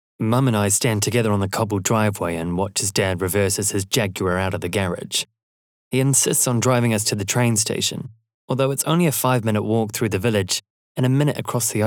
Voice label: AUDIOBOOK; New Zealand; Expressive and Engaging